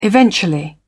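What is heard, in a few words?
"eventually", British English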